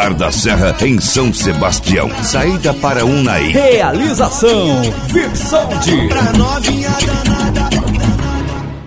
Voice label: carnaval automotivo chamada radio; pre carnaval automotivo

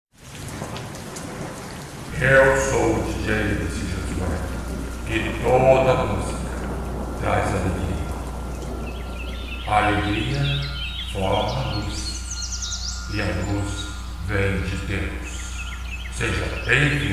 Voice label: vinheta

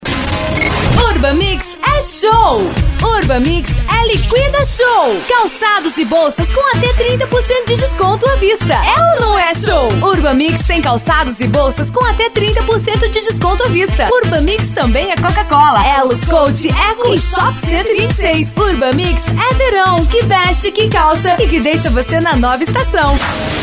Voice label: locutora

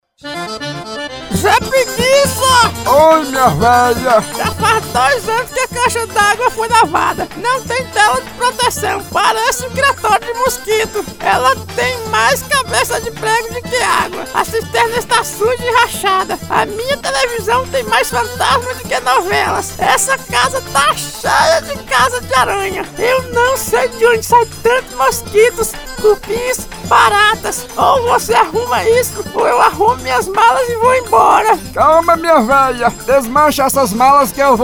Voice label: voz locutor locucao caricata servicos, vinhetas para carro de som

